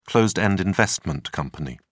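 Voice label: "closed-end investment company", British English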